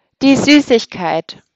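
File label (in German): "Süßigkeit", German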